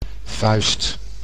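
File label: "vuist", Dutch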